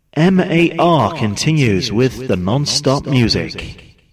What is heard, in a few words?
Shoutcast, Voiceovers; MAR